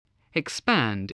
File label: "expand", voice